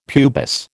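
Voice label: "pubis", American English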